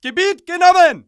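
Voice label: german area captured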